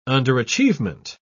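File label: "underachievement", British English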